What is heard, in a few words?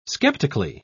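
"skeptically", British English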